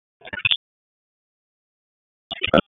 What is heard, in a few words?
Police Northwest District Tac 1